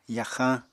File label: "yaxañ", Apatani; Household Items